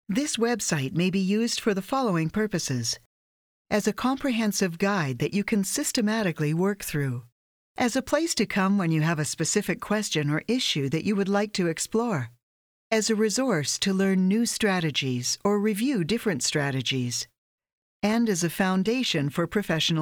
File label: eLearning